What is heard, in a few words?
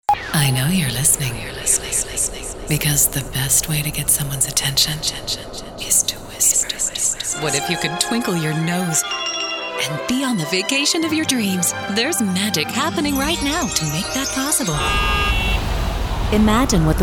Commercial